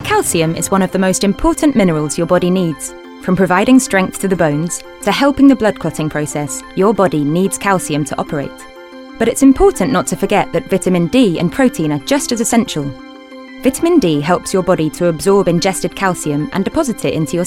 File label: english british e-learning